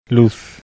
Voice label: "luz", bizkaia masculino; spansk; fonetica

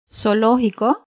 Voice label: hiato "zoológico", Cuba, Pinar del Río femenina; spansk; fonetica

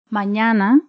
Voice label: cons ñ mañana cuba, pinar del rio femenina; spansk; fonetica

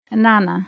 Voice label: cons n "nana", cuba; spansk; fonetica

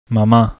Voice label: "mama", bizkaia masculino; spansk; fonetica

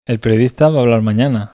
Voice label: acento oracional foco objeto bizkaia, masculino; spansk; fonetica

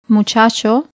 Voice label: cons ch "muchacho", cuba; spansk; fonetica

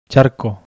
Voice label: cons ch charco bizkaia; spansk; fonetica; biskaia

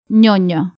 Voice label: "ñoño", Cuba, Pinar del Río femenina; spansk; fonetica

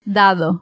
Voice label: "dado", Cuba, Pinar del Río femenina; spansk; fonetica

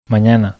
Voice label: "manjana", bizkaia masculino; spansk; fonetica

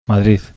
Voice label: cons d madrid bizkaia; spansk; fonetica; biskaia

